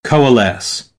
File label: "coalesce", American English; oʊ - əʊ